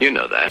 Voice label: you know that, announcer

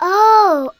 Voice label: "oh" girl; ooh ahh

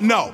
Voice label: "no", male; expletives

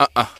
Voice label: "uh uh", Voice

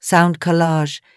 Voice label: british dame

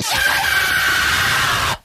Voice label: shut up female; expletives

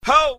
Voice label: "ho" male

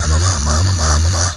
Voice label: umuhma ma ma mama, gibbrish; Voice

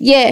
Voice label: "yeah" drag, voice; ooh ahh

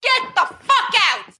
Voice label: get the fuck out female, expletives; Voice

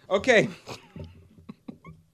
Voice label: "okay", expletives

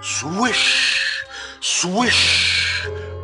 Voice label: swish swish, voice; ooh ahh

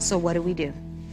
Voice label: "so what do we do", female; questions

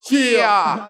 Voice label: "yeah" SHEAH, voice

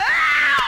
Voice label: ow male scratch; ooh ahh; ow ouch

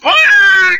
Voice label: "wah" cartoon

